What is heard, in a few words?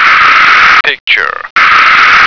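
"picture", American English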